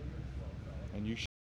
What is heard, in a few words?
Male Radio; NYCC 2013